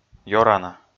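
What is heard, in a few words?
"ёрана", Komi, male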